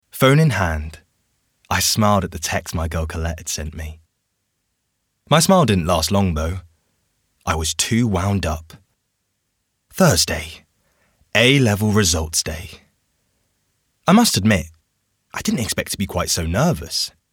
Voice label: audiobook reel